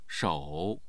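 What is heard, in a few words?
"shou3", Mandarin Chinese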